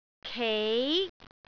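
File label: "kei2", Cantonese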